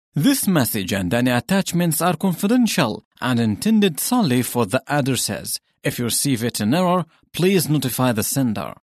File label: Arabic English M Narration; accent of the arab league